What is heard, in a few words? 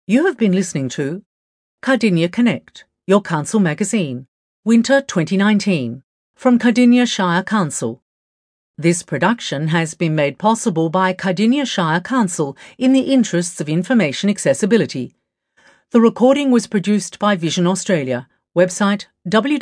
closing announcement